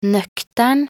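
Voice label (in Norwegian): "nøktern", Norwegian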